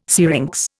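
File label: "syrinx", Indian English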